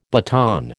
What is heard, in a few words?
"baton", American